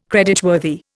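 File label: "creditworthy", Indian English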